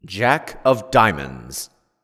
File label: Voiceover; Jack Of Diamonds